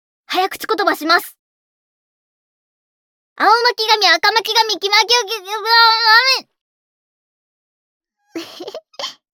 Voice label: 早口言葉, ASMR

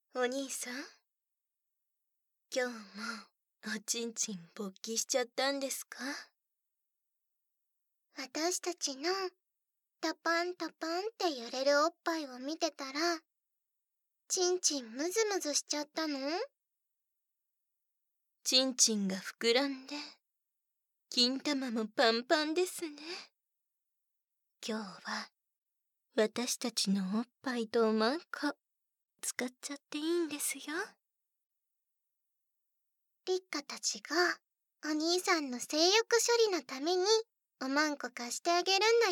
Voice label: 両耳舐め, ASMR; らぶらぶ母娘丼の両耳舐め中出しセックスサービス; 効果音なし

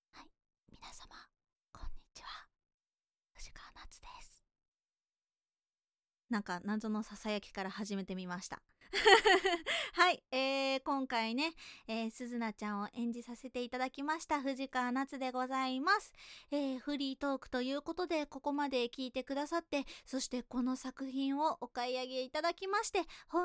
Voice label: フリートーク

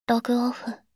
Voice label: ろぐおふ…, システムボイス; Windows等向けシステムボイス

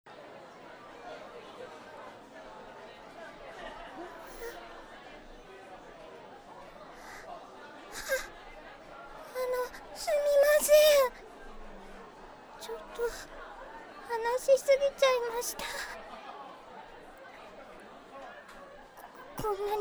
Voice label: 自己紹介終わり, ASMR

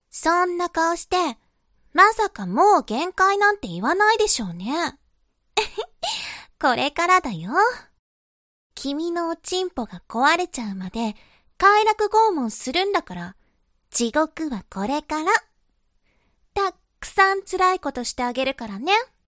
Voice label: 懲罰中音声, ASMR

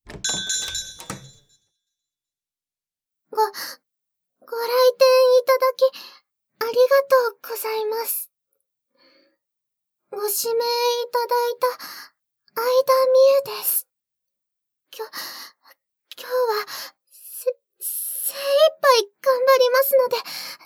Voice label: 挨拶, ASMR

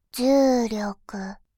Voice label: 重力, バイノーラル左, ASMR

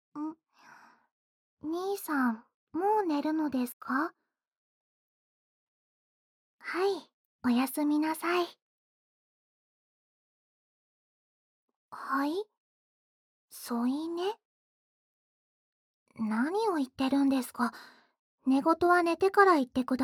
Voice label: おやすみボイス

